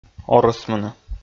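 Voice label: "орӧсмыны", Komi, male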